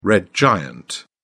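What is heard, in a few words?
"red giant", British English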